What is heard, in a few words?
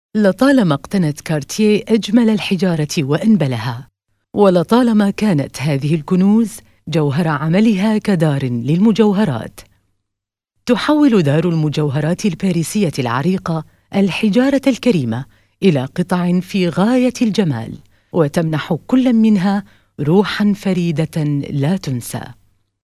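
Arabic, female, various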